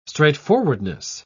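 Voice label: "straightforwardness", British English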